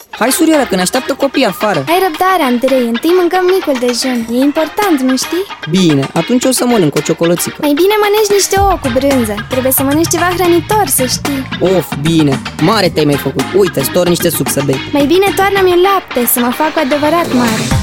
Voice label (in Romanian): Spot radio; VIATA; Alimentatia